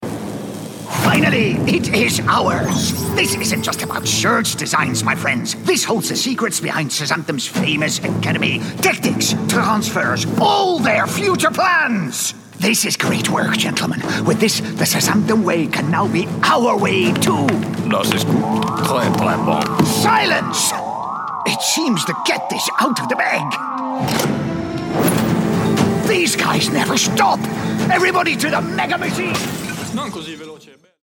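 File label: Dutch, Characters; GoLocalise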